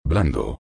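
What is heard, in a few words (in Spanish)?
"blando", Spanish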